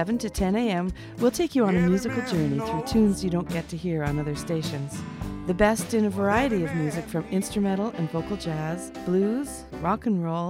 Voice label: show promo